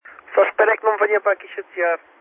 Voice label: qso main receiver